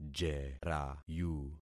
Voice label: "jerayu", concat syllables; Affixing experiment